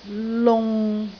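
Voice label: "lung6", Cantonese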